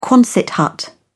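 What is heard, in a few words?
"quonset hut", English, uk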